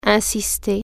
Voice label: insist, french